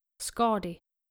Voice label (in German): "skadi", skandinavische maedchennamen